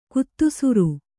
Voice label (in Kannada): "ಗೋಧಿಸರ", Kannada